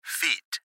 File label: Gps Voice, Male